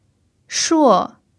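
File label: "shuo4", Mandarin pinyin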